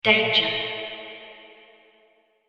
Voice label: Danger British Female Voice; Recorded Voice Sound Effects; science fiction sound effect; Andrew Fleming